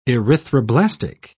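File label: "erythroblastic", British English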